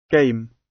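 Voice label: "game", British; Flora and fauna, agriculture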